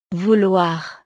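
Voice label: "vouloir", French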